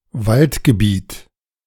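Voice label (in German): "Waldgebiet", German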